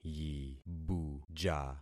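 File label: "yibuja", concatenated syllables; Affixing experiment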